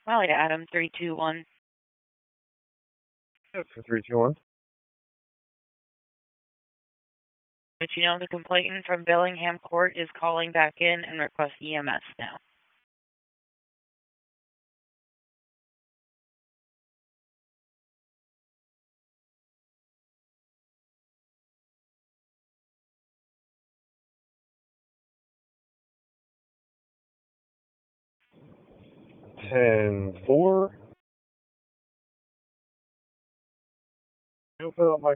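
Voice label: Raleigh Police Northeast District Dispatch, p25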